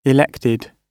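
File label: "elected", British English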